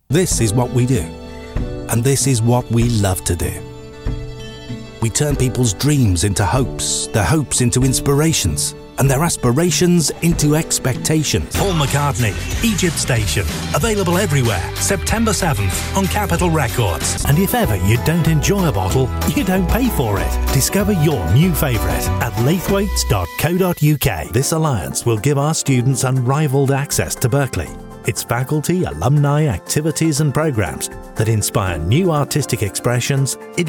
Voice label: english voice over Commercial ads